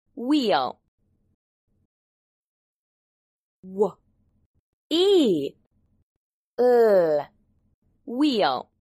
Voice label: "wheel", English

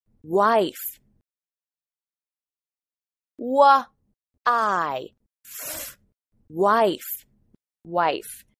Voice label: "spell wife", English

